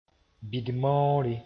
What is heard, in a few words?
"bɩd-maoore", moore; polio